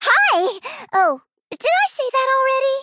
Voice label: Female Gnome Good Emote Greetings

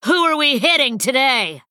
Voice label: Female Human Play

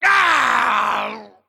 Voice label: Male Dwarf Death

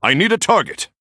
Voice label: Male Human Error Target

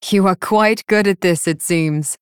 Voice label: Female Night Elf Ahead

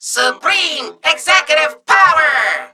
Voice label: Clockwork Robot Play

